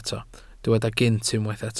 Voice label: "gynt", Welsh